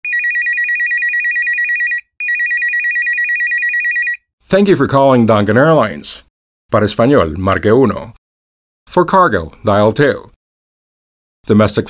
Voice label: ivr english